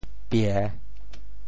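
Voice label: "pie3", Teochew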